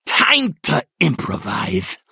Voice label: hurt